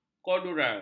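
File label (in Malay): "korduroi", Malay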